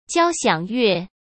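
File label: "交响乐", Mandarin; chinese flashcards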